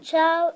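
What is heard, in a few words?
"ciao", Italian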